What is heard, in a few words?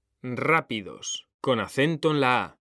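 "rápidos", Spanish, male; mekanta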